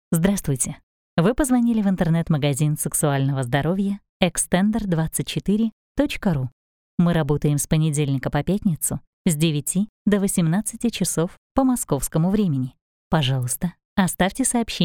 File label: IVR; Extender24